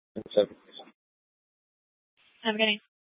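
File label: police department Dispatch, scanner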